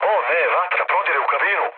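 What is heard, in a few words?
Serbian on fire mood low, speech; War Thunder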